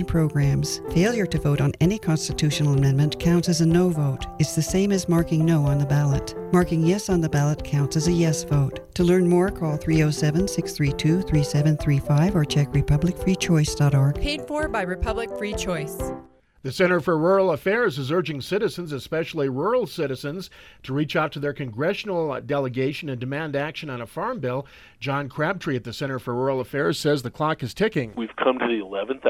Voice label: wyoming radio news